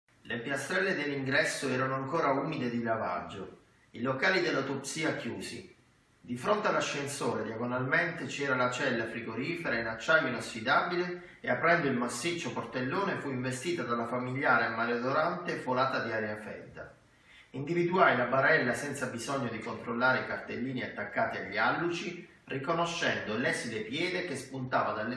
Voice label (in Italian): Interna iPhone Piano Americano; nerdvana